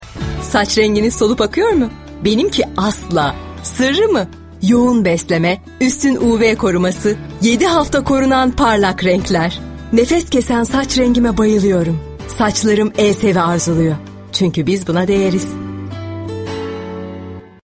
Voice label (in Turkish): reklam cazibeli; elseve